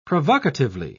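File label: "provocatively", British English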